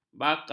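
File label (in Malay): "bakau", Malay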